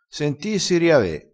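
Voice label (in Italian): sentissi riave, vocabolario dialettale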